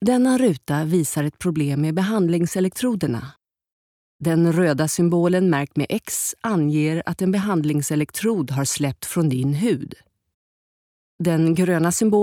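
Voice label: Swedish E Learning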